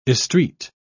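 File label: "estreat", British English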